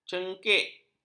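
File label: "cengkik", Malay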